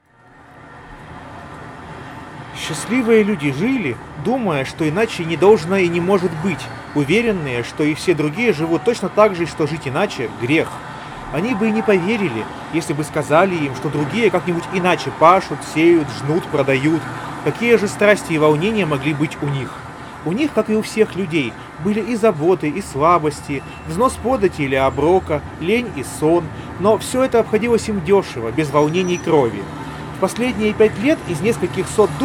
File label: Recorder noise; Запись на профессиональный рекордер; realme buds clip